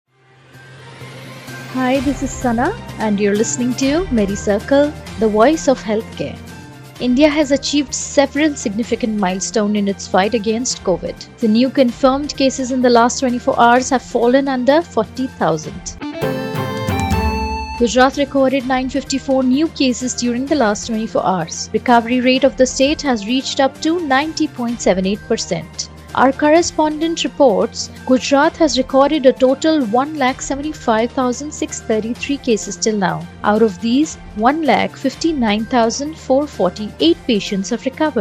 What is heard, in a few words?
english news